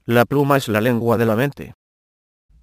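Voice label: proverb, Spanish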